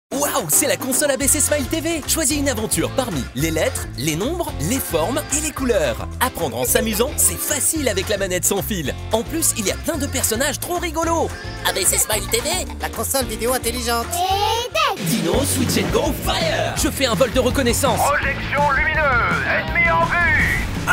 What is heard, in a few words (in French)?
medley pubs tv pour les enfants; vtech spin master; publicite